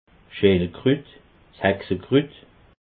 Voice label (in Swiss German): "schellkrut", alsace